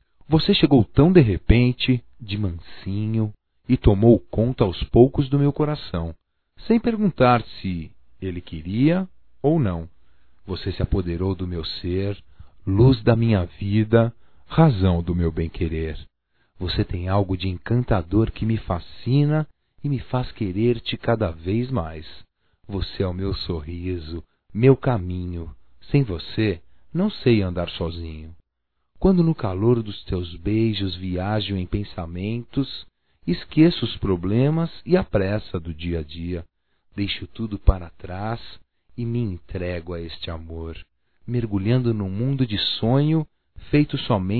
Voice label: FOTOGRAFIA M, Só Voz, masc; Telemensagens; Telemensagens 2017

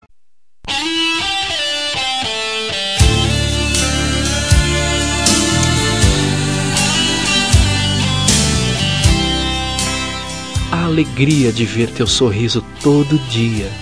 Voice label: ROM PEQUENOS TESOUROS DO AMOR MASC, Telemensagens

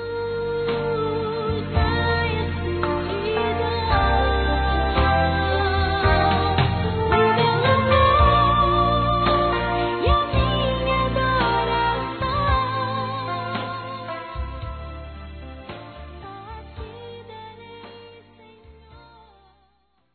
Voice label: ANIV. NEUTRO F, Gospel, fem; Telemensagens; Telemensagens 2017

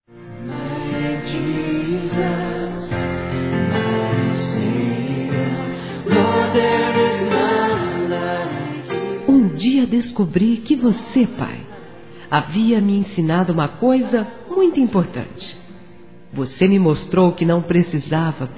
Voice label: Evangélico Aniversário Pai Feminino; Aniversários

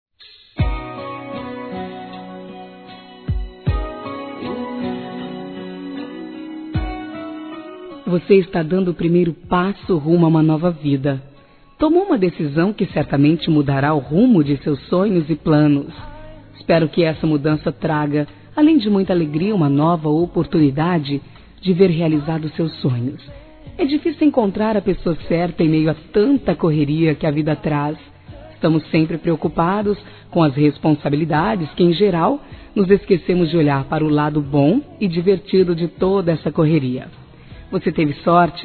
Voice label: Noivado Feminino; Telemensagens; Parabenização

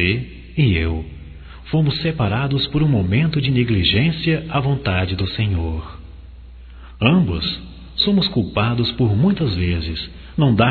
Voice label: Reconciliação Amizade Para Ele Masculino, Só Voz; Telemensagens; Telemensagens 2017

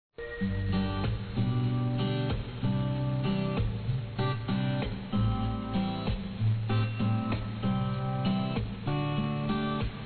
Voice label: ROMANTICA F, Páscoa, fem; Telemensagens

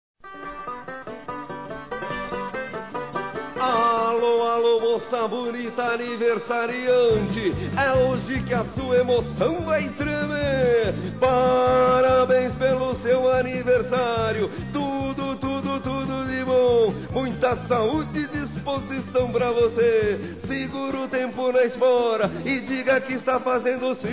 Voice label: LOCUTOR DE RODEIO, fem; Telemensagens; Telemensagens 2017; Engraçadas

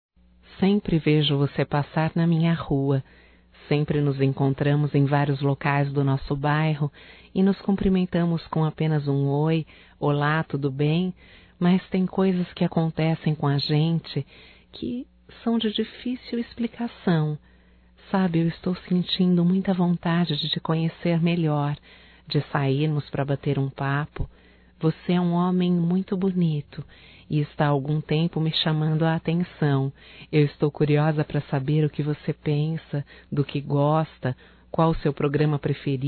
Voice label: PAQUERA(F), Românticas fem

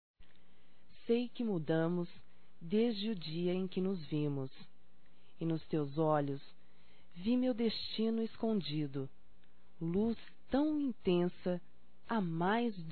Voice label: Procura Feminino; Românticas

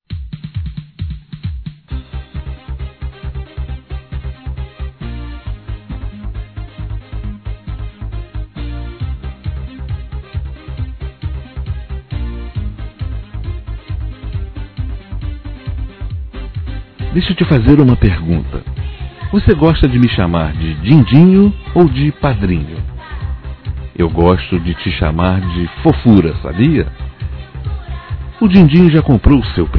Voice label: Afilhado (a) Criança Masculino; Telemensagens 2017; Aniversários; Aniversário Infantil

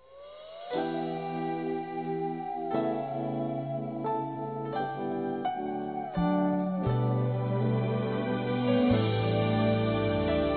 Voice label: ANIV. AMIGO(A) DISTANTE F; Aniversários; Aniversários diversos; fem

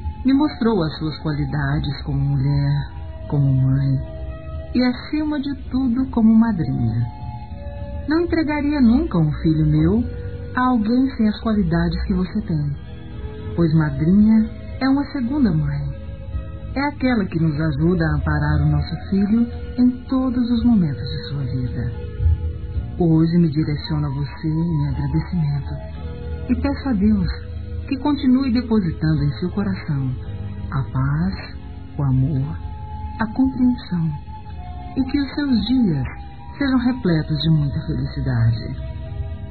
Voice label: Aniversário Comadre Feminino, Telemensagens; Aniversários; Aniv Familiar

